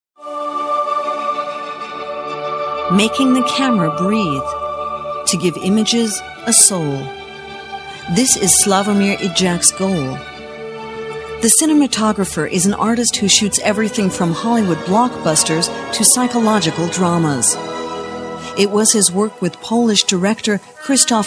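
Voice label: US English Kommentar Freundlich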